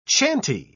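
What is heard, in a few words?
"chanty", British English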